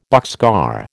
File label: "boxcar", American